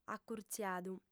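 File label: "acurtziadu", lingua sarda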